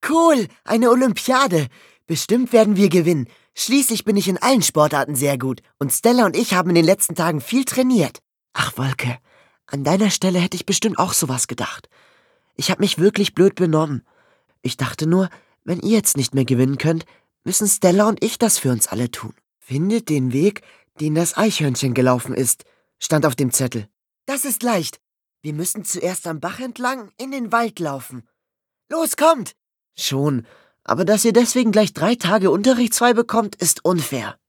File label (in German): Hörspiel; Mondstrahl